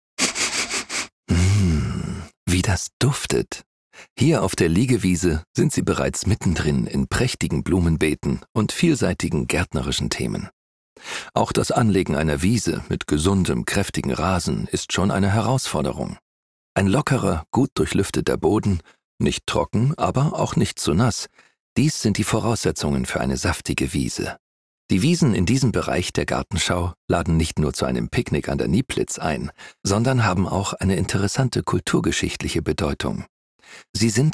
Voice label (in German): Audioguide; Beelitz